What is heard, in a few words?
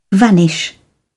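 "vanish", British English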